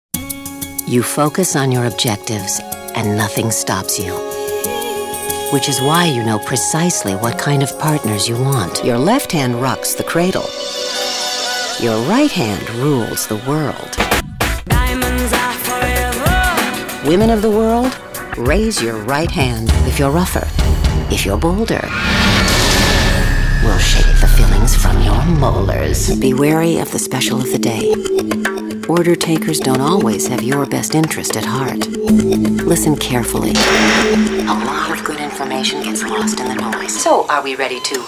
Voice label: commercials